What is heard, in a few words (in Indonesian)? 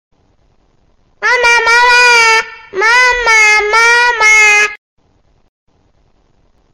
suara bayi panggil mama